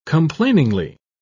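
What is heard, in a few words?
"complainingly", British English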